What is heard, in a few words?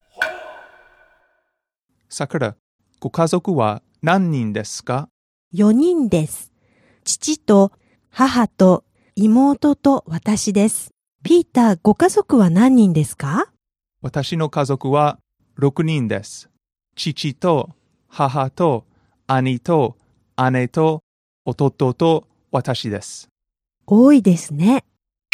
dialog; JapanesePod101; Beginner Season 1